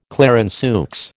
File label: "Clarenceux", American